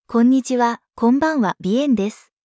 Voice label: Female